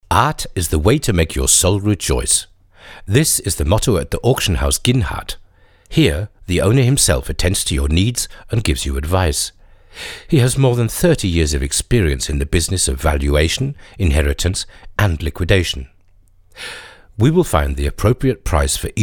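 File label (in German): British English, Kommentar Neutral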